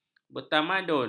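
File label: "bertamadun", Malay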